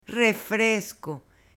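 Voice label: "refresco", Spanish, female; AppLenguaje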